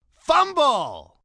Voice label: Fumble, announcer, male, football; Sports, umpire, coach; VoiceBox